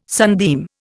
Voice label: "sunbeam", Indian